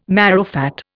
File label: "marrowfat", Indian